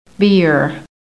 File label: "BEER", american; PHONETIC